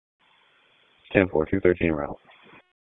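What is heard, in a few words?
Raleigh Police Northeast District Dispatch; wake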